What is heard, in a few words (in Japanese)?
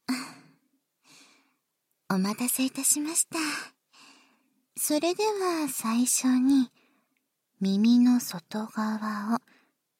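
耳かき（囁き重視編集 効果音なし）, ASMR; 結花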